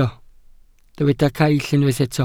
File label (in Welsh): "caill", Welsh